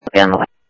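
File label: Lakes Sheriff Lake Patrol, scanner audio trunk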